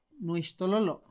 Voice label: "ojo", Náhuatl; INALI; INAH

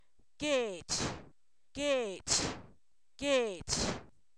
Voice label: grano, Zapoteco, san miguel albarradas; enfermedades

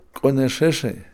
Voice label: incorrecto, Kakchikel

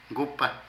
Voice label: Mudo, Zapoteco de Petapa; Cuerpo; Vocabulary

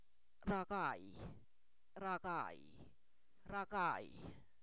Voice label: Zapoteco, san miguel albarradas; quinto; numeros

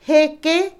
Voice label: Otomí, mujer; dividir; INALI

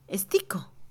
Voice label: Mixe, Mujer; porqué; INALI

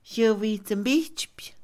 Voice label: reprender, Mixe, Mujer; Habla; INALI

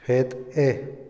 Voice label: "tostada", Otomí, hombre; Comida; INALI

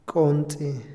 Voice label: escalera, Otomí, hombre; INALI

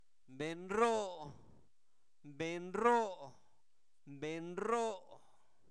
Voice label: Zapoteco, santo domingo albarradas; INALI; sustantivos; supremo

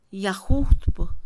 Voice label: taladro, Mixe, Mujer; Acciones; INALI

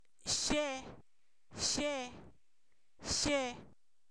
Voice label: Zapoteco, san miguel albarradas; qué; preguntas

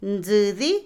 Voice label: Otomí, mujer; racimo; INALI

